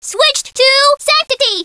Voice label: "Switched to sanctity!" High Female Voice; Knights